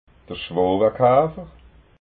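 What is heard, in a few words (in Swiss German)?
"schwowakafer", Alsatian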